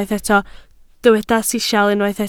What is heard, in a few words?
"sisial", Welsh